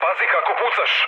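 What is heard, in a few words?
Serbian player friendly fire mood low; War Thunder; speech srb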